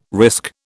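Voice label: "risk", British English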